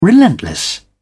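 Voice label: "relentless", British English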